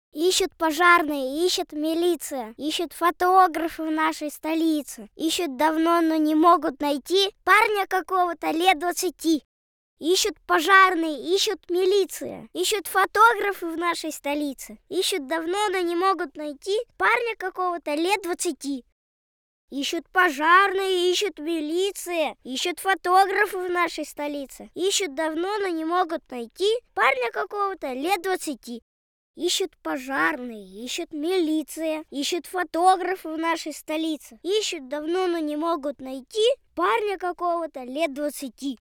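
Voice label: Detskiy golos, child voice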